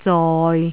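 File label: "zoi6", Cantonese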